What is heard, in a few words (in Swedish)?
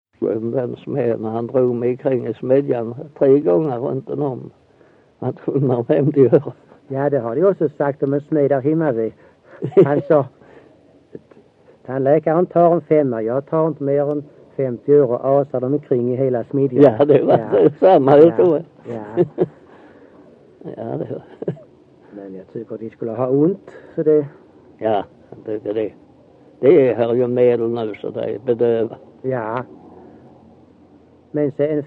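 Össjö Skåne, dialekter; Folkminnen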